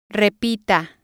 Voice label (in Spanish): "repita", spanish